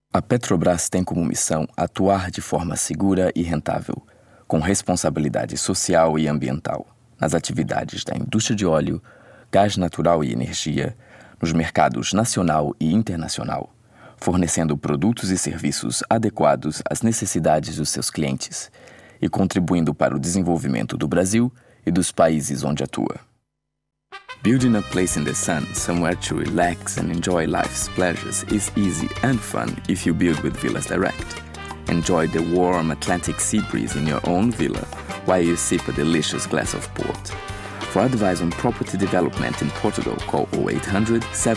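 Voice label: Portuguese, General; GoLocalise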